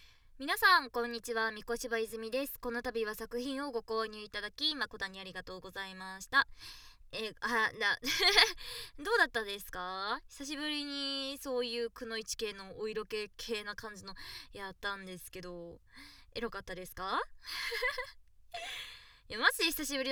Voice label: フリートーク

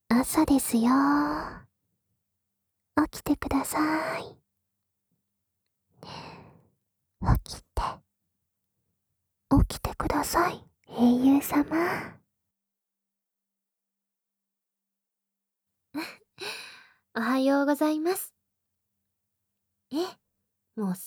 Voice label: 目覚ましアラーム音声; キャロルのえっちな…目覚ましアラーム音声♪

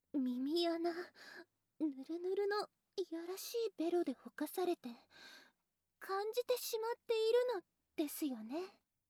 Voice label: ギャップボイス; Gap Voice

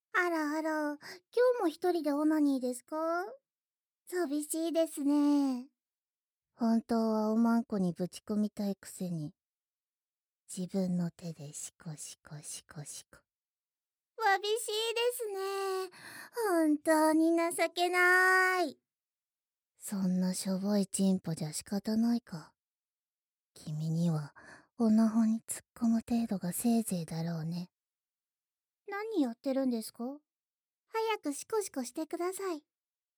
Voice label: 延々とバカにされ続ける、ドM向けオナサポボイス, ASMR

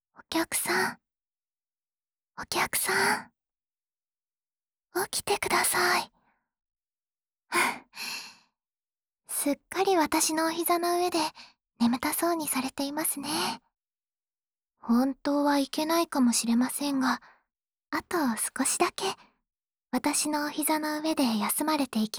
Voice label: 安眠ボイス＆寝息(右), ASMR

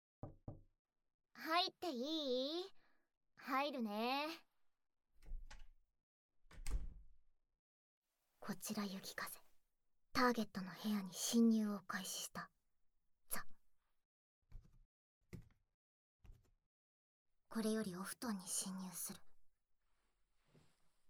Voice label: ラブラブささやきボイス♪

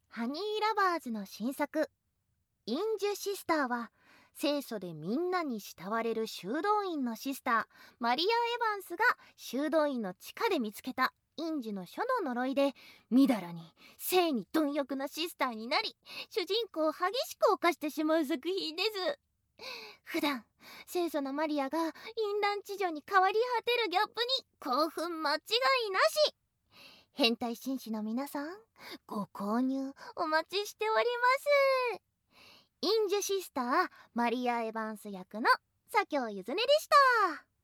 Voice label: CMボイス(Commercial Voice)

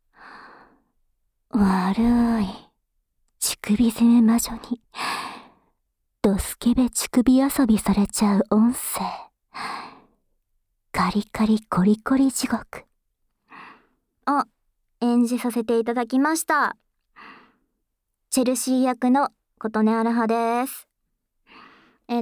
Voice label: フリートーク

